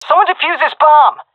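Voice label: cover defuse, female; vo; Counter Strike Model Pack; CS:GO; seal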